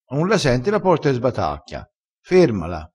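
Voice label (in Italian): "sbatacchia", vocabolario dialettale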